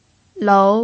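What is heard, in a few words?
"lou", Mandarin Chinese pinyin, tone 2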